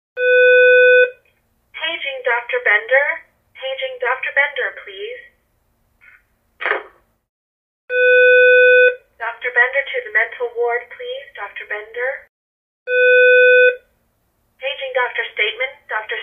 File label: Hospital Pages Vario